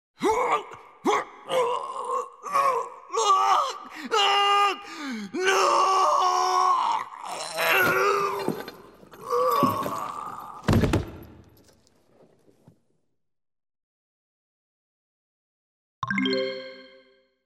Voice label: Male PC Dies; Mystara; TSR 2502 Hail The Heroes